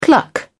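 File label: "cluck", English, British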